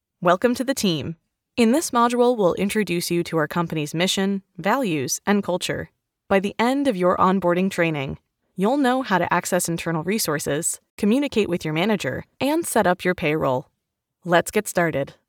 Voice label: Onboarding Training Sample, elearning corporate training